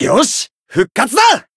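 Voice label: healed, Japanese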